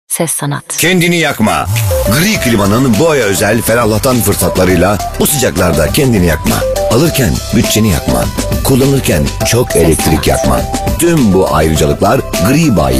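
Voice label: Turkish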